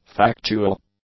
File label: "factual", english; adjectives